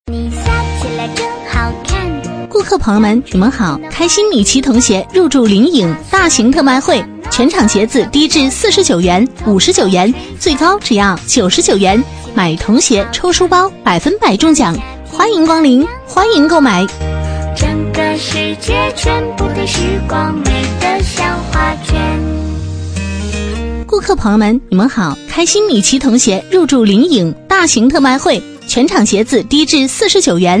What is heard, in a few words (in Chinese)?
促销 童鞋, 女; 开心米奇童鞋